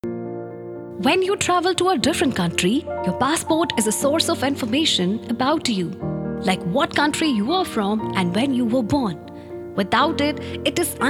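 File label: explainer English; Passport; Voice Artiste female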